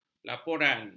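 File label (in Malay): "laporan", Malay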